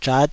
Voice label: "zhat5", Taiwanese syllable